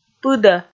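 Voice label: "пыдӧ", Komi, female; kpv audio dict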